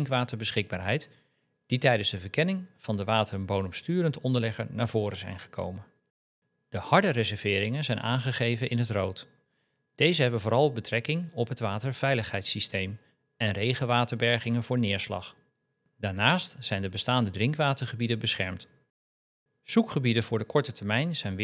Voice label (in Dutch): animatie voice-over; provincie zuid-holland; reserveringen en ruimtelijke impact